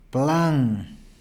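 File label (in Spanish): "plan", Spanish, Peru, Cuzco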